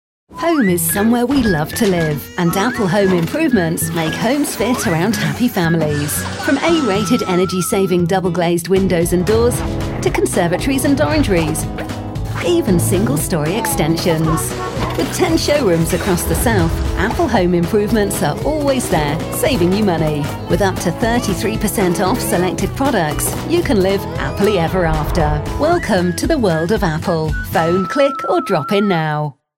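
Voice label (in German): English UK Freundlich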